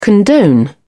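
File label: "condone", British English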